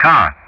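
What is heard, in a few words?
"car", English; Ka-50; Player Target; DCS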